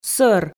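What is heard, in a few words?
"сӧр", Komi, female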